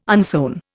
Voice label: "unsewn", Indian

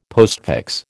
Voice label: "postfix", American

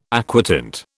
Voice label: "aquatint", British

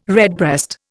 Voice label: "redbreast", Indian

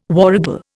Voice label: "warragal", Indian

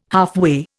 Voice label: "halfway", Indian

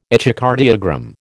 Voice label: "echocardiogram", American

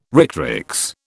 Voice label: "rectrix", British

